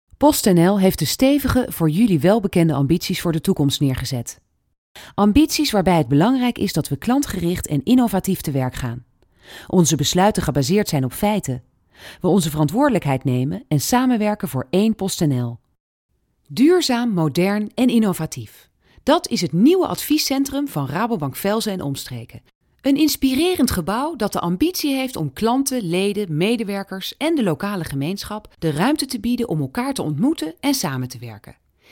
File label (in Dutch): zakelijk